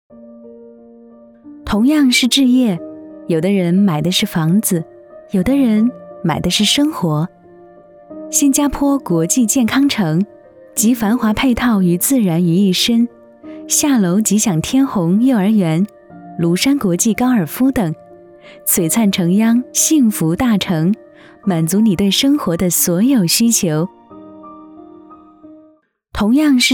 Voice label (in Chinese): 国语女, 时尚广告; 国际健康城